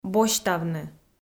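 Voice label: "босьтавны", Komi, female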